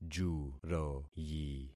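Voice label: "juroyi", concatenated syllables; Affixing experiment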